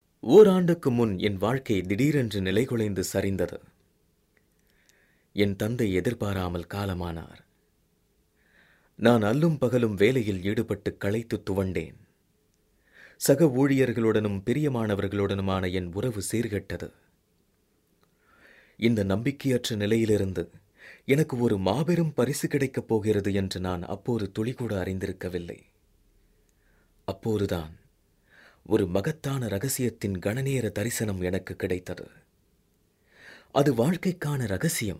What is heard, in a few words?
Tamil audiobook